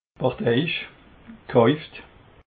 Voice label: "parteiisch", alsace

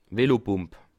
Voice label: "velopump", Alsace

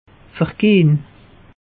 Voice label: "vergehn", alsace; meteo

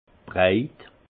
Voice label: "breit", alsace; vin; ample

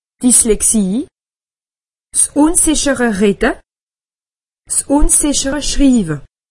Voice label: "dyslexie", alsace; medecine

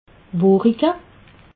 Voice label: "wurige", alsace

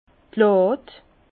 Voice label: "lad", Bas Rhin; vin

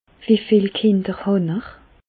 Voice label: kinder, Bas Rhin; medecine; vous avez combien d'enfants

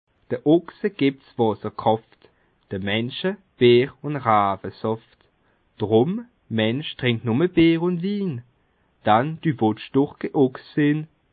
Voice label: "ochs", Bas Rhin; Le boeuf tire ses forces de l’eau, A l’homme il faut de la bière et du vin, Aussi ne bois

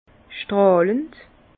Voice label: "strahlend", Bas Rhin; vin; scintillant

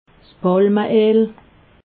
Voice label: "palmeel", alsace

